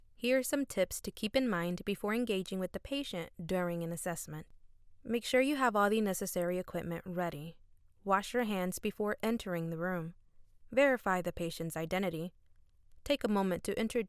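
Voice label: E-learning Patient Care Training; Voiceover Actor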